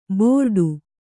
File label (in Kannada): "ಭೋರಾಡು", Kannada